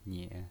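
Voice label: "nie" third tone, pinyin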